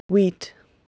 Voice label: "wit", Proto Germanic